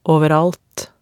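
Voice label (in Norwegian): "overalt", Norwegian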